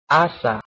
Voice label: "asa", Old Latin